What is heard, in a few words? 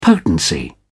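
"potency", British English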